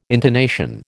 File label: "intonation", American